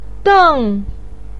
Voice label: "deng4", Chinese pinyin voice